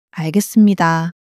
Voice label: sadness, monologue